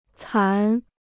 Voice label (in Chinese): "残", Chinese